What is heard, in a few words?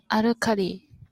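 "alkali", Japanese, female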